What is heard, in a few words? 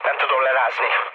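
Hungarian aircraft damaged mood low, speech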